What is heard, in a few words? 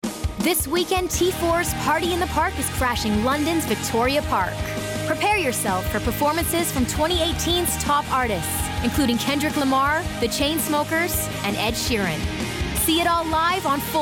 PROMO; T4